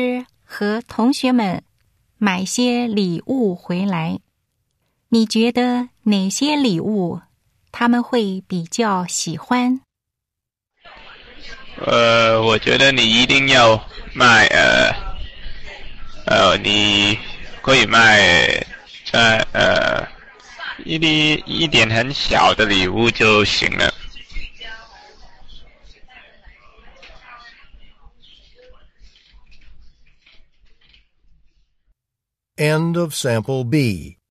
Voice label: chinese conversation